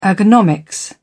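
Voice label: "ergonomics", British English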